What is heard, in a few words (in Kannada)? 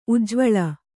"ಉಜ್ವಲ", Kannada